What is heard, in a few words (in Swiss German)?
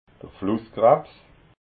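"flusskrabs", Alsatian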